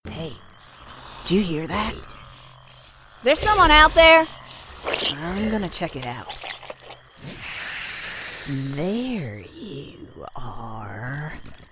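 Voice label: English US female Gaming; voice over; american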